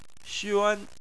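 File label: "xuan", Chinese, first tone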